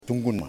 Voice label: "Possum", Yimas

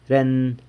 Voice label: "ren", San Lucas Quiaviní; that

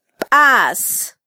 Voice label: "bas", mam

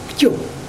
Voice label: run (sing. imperative), mokilese

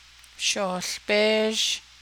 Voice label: boil it 2nd person dual, Navajo

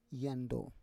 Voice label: "yando", Roon

